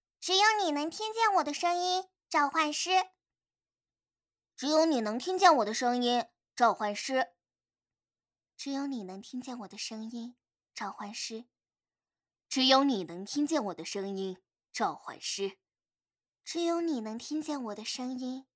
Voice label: 不同声线游戏音

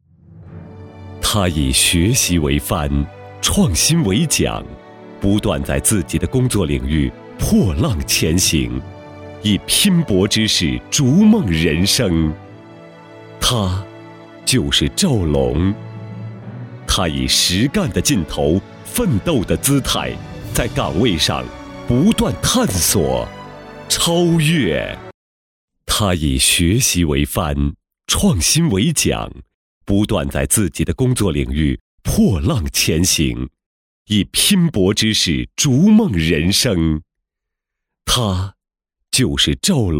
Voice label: 大气专题; 大气专题我身边的党员故事

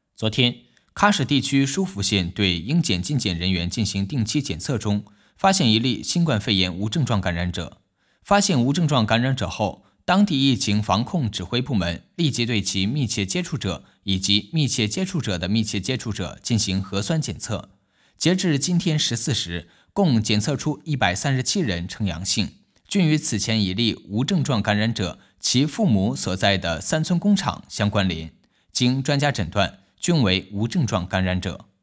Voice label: 新闻

